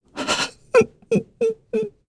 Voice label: Sad, kr; Lucias; kingsraid